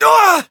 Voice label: ice pop attacked, eng

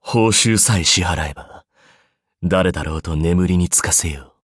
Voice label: levelup ja; Cookie Run

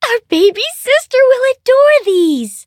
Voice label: level up, en; cookie run

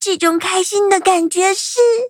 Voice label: levelup zh-hant; Cookie Run

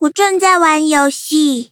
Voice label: touch, zh hant; cookie run

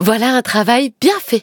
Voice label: victory, fr; Cookie Run

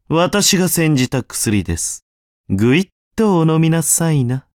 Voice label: levelup ja; cookie run

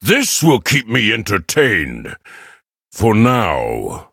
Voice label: levelup, en

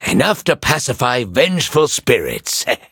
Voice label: levelup en; cookie run

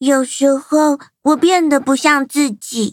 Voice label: loading, zh-hant; cookierunwiki

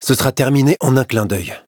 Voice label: battle, fr

